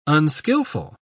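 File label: "unskillful", British English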